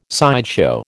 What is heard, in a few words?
"sideshow", American